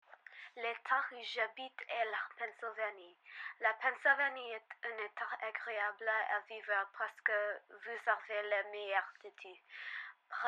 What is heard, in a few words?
French intro